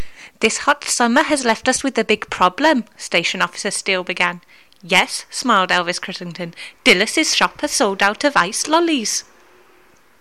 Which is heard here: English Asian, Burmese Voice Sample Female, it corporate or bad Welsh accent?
bad Welsh accent